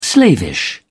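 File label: "slavish", British English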